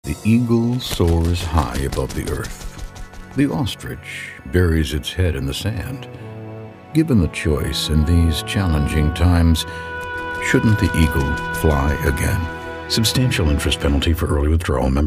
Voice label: folksy

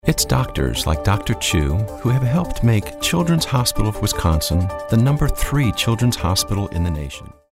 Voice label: caring

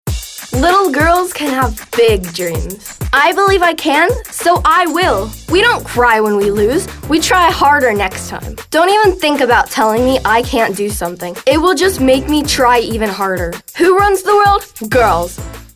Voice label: motivational

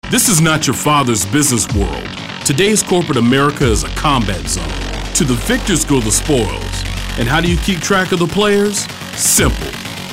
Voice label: Corporate Business

